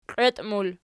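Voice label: "qieiti" plural, Archi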